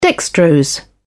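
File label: "dextrose", British English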